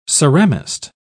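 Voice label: "ceramist", British English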